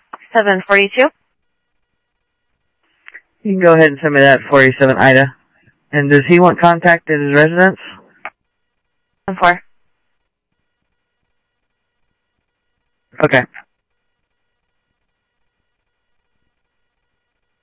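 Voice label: Sheriff East County, scanner audio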